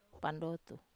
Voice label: "pandotu", Wamesa